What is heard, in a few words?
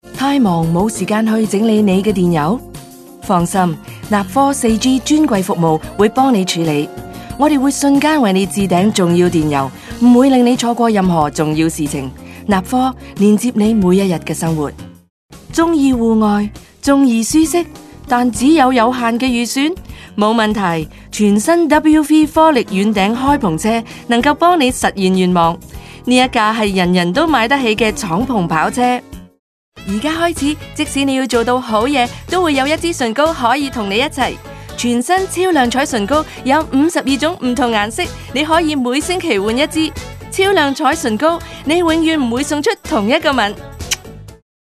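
Cantonese, TV commercial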